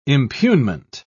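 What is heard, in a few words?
"impugnment", British English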